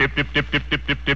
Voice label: dip dip dip dip, gibbrish; Voice